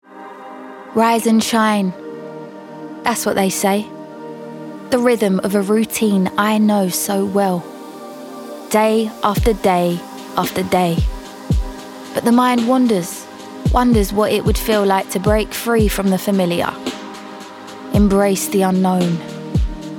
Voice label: commercial; Nike